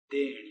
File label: "deeNi", Palula